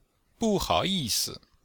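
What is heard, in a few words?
"bu4hao3yi4si5", Chinese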